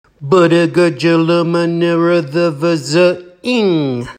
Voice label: Voiced Consonants Chant Fast